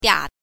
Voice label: "dia3", pinyin